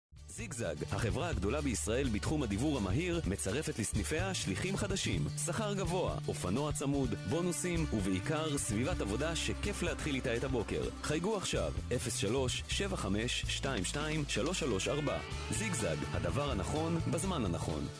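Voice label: Israeli, male; Professionelle Sprecher und Sprecherinnen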